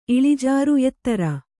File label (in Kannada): "ಇಳಿಜಾರು", Kannada